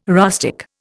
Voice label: "rustic", Indian